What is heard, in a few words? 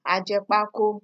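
"ajekpako", Nigerian Pidgin, female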